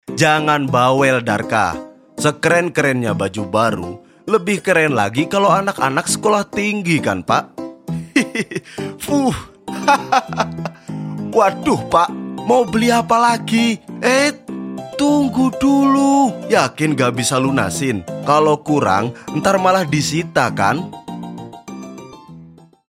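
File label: Indonesian character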